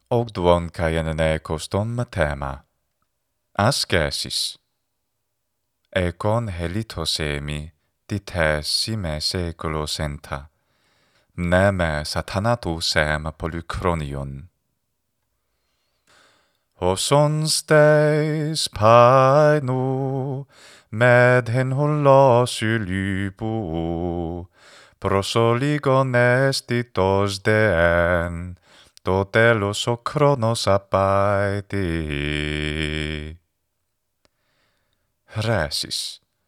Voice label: Ancient Greek Classical Attic Pronunciation; Ancient Greek Assimil